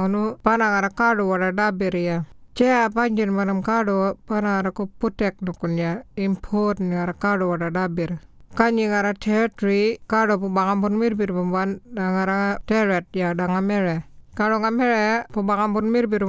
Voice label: Introduction Burial Notification Murrinh Patha, aboriginal languages; information on the Burial and Cremation Act 2022; information recorded in 18 aboriginal languages; talking in language